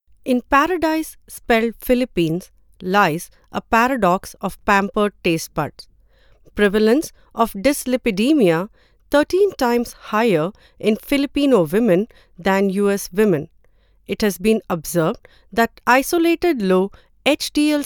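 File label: medical narration, English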